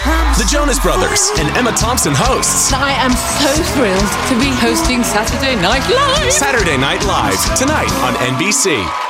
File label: promo voiceover; Saturday Night Live